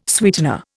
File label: "sweetener", Indian